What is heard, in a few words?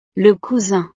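"le cousin", French; familia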